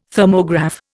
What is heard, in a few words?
"Thermograph", Indian